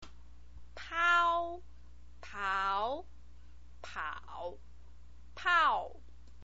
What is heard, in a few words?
"pao", chinese pinyin